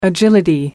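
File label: "agility", British English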